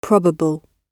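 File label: "probable", British English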